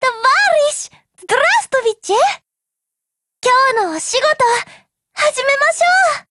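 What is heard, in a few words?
HELLO, Japanese; GF Alarm; PPsh41Mod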